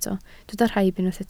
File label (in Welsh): "rhaib", Welsh